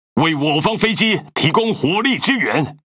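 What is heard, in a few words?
Speech Quick Commands Ally Planes, Taiwan; World Of Warships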